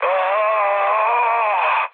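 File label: Serbian damaged pilot mood low, speech; War Thunder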